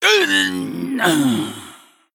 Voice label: Male Human Death